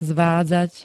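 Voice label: "zvádzať", Slovak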